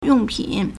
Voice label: "yong4 pin3", Chinese